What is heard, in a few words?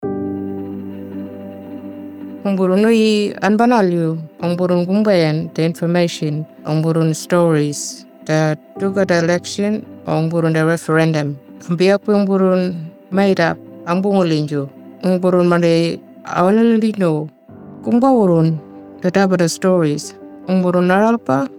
Maung, Radio mix; indigenous; AEC Misinfo